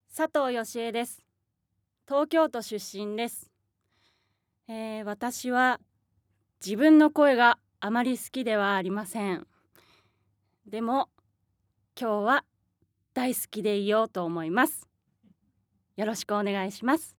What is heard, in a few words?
free talk, female voice